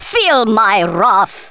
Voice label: "dragon punch", Thespian; Worms Armageddon